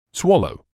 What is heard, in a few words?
"swallow", English